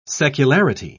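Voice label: "secularity", British English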